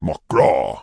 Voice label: Orc Male Standard NPC Greeting